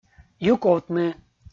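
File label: "юковтны", Komi, male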